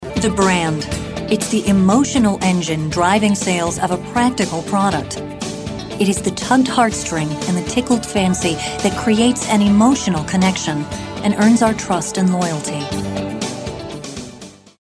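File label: American narration corporate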